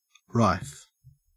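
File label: "rife", Australian English; pronunciations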